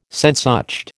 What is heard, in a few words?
"Sehnsucht", American